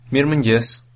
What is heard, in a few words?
good morning, albanian